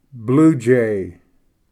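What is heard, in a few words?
"bluejay", English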